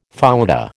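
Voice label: "fouetté", American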